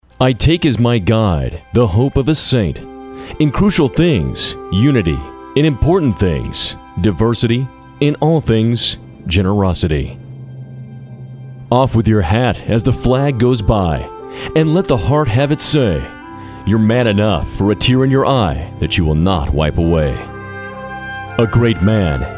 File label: Concept Promo